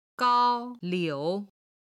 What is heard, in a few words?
"gao1 liu3", Chinese